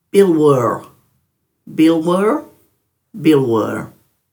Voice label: "bilwurr", Dhurga

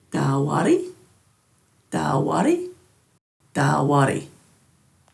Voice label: "dhaawarri", Dhurga; porpoise

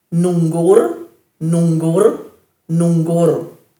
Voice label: "nunggurr", Dhurga

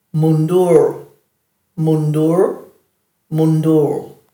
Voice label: "mundur", Dhurga

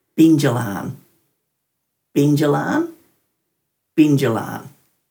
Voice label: "bindjilaan", Dhurga

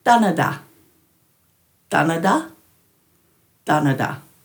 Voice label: "dhanadha", Dhurga

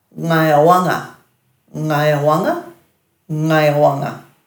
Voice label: "ngayawanga", Dhurga

